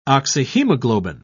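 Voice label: "oxyhemoglobin", British English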